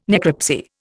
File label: "necropsy", Indian